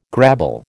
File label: "grabble", American